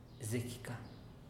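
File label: "zekika", Teotitlán del Valle Zapotec; asi es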